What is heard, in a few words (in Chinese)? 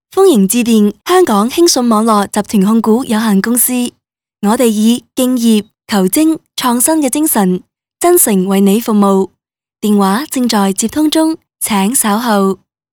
女 彩铃